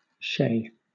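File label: "chez", British English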